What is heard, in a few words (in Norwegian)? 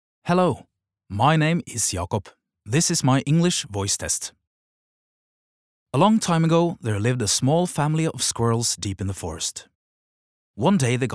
no engelsk, norwegian, male